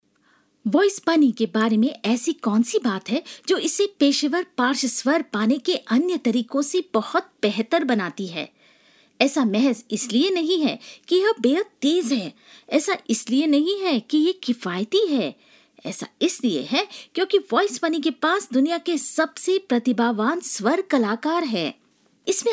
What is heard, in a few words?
hindi promo